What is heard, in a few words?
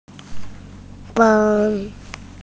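"mi4 feng1", Mandarin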